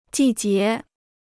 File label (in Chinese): "季节", Chinese